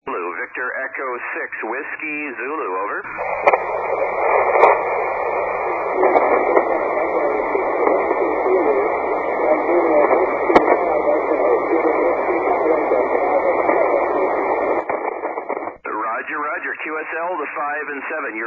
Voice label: 80M SSB; SSB-SP; A good signal from Svalbard Islands...surprising signal from a direct Polar-Path...beaming at around